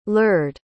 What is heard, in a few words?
"lured", pronounce